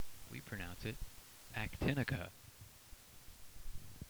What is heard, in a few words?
actinica pronounce